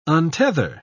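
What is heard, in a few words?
"untether", British English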